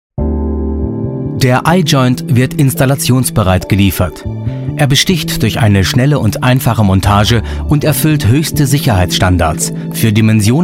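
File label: German, male, narration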